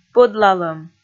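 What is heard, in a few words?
"пӧдлалӧм", Komi, female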